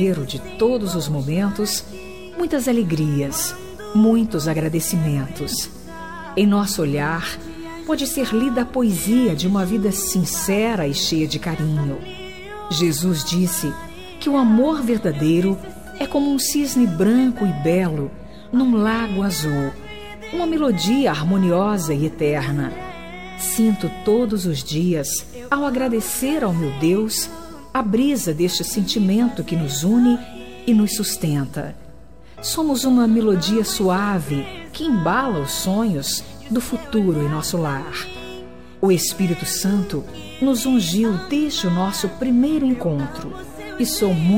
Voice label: dn gospel marido fem, dia dos namorados para marido evangelica voz feminina

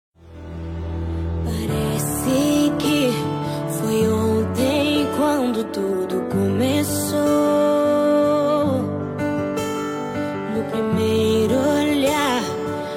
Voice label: dia dos namorados gospel namorado, evangélica voz feminina; dia dos namorados para namorado

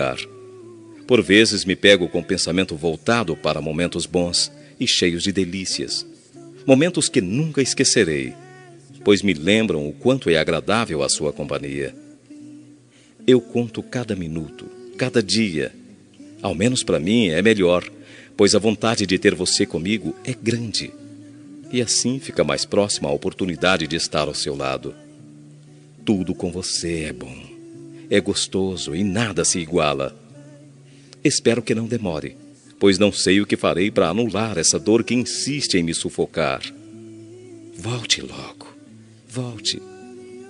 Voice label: saudades masculina, Telemensagem de Saudades – Voz Masculina

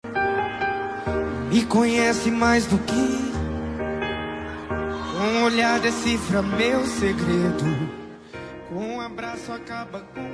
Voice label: masc, Dia dos Pais – Voz Masculina

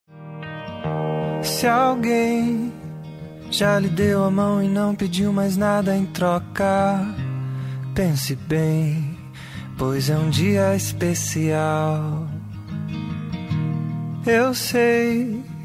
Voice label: irmao masc, Dia dos Pais para Irmão – Voz Masculino

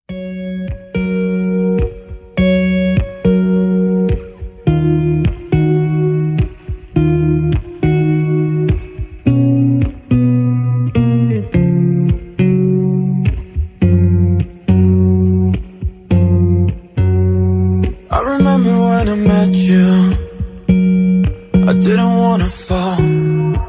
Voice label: dia dos namorados especial masculina; pessoa especial voz

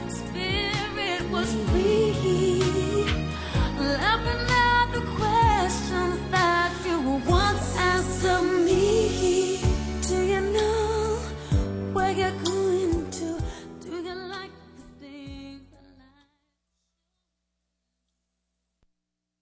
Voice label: Amor q Não morreu, Telemensagem Romântica para Ex. Voz Feminina

